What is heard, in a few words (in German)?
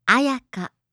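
"Ayaka", japanische Mädchennamen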